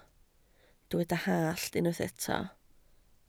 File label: "hallt", Welsh